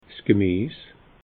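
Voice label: "gemies", Alsatian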